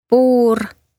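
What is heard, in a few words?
"Puur", Estonian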